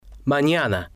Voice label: "manana", spanish

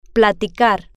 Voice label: "platicar", Spanish

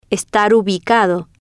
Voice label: "estar ubicado", Spanish

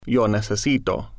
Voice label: yo necesito, spanish

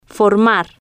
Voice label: "formar", Spanish

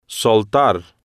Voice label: "soltar", Spanish

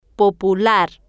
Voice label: "po-pu-lar", Spanish

